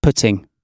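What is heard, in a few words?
"putting", British English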